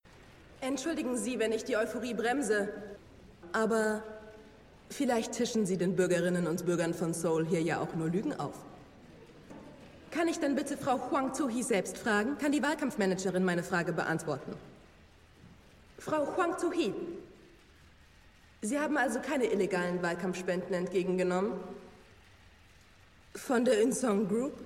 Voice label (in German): Synchron investigativ